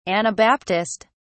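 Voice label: "anabaptist", British English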